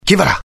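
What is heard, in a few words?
curious, Italian; mohaa; dialogue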